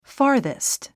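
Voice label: "farthest", English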